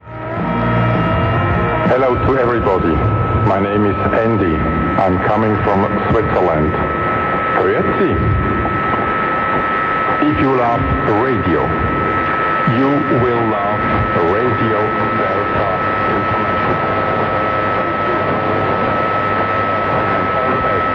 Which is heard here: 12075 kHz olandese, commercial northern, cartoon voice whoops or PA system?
12075 kHz olandese